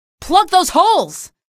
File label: alert antlions, female; episodic; episode 1; Source engine